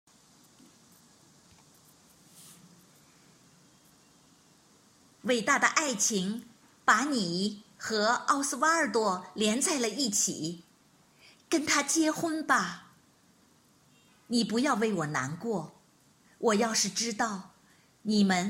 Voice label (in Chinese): 有声小说